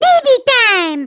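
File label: "baby time", baby voice; babymario; WII; MARIOKARTWII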